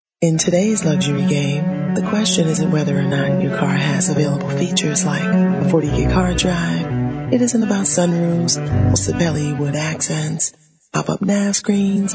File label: COMMERCIAL